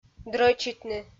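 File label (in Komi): "дрӧчитны", Komi, female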